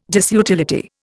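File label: "disutility", Indian English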